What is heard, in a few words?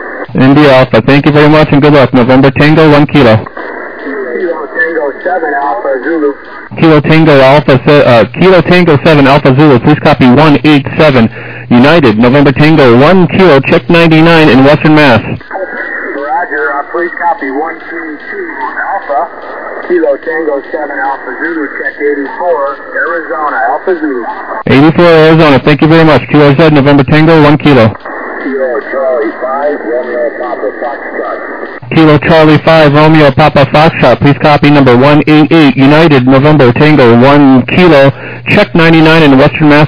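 upper sideband 21MHz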